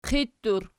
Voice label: "qittur", Archi